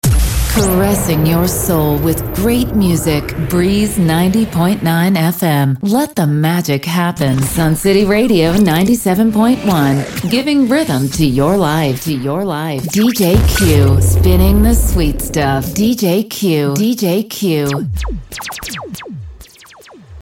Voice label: RADIO AND DJ IMAGING MIXDOWN